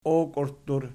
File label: "okiurttur", Archi